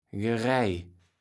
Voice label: "Gereij", kaevels platt

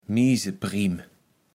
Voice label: "Miesepriem", Platt

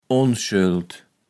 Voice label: "Onschöld", Platt

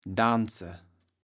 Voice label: "danse", kaevels platt

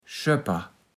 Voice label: "Schöpper", platt

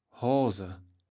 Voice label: "Hoase", kaevels platt

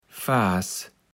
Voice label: "faas", kaevels platt

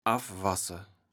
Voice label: "affwasse", kaevels platt

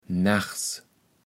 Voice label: "nachs", kaevels platt

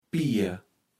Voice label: "Pier", kaevels platt

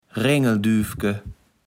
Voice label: "Rengeldüvke", Platt

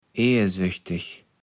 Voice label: "eersöchteg", kaevels platt

